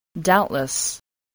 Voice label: "doubtless", spelling

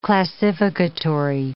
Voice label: "classificatory", English

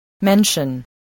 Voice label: "mention", voice, spelling

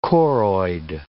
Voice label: "choroid", English

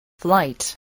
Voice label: "flight", spelling

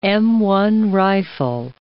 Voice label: "M1 rifle", English

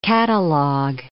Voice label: "catalog", English

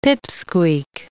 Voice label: "pip-squeak", English

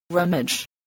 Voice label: "rummage", English spelling

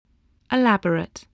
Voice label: "elaborate", English

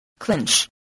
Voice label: "clinch", voice

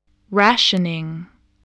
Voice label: "rationing", english

